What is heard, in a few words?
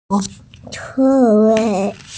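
"ci4wei5", Mandarin Chinese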